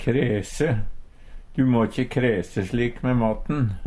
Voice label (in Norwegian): "kræse", Numedalsmål; Verb